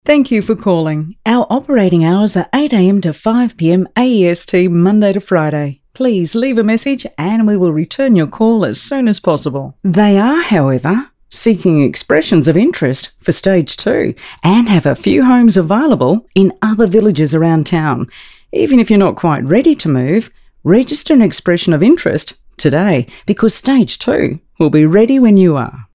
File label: AU PHONE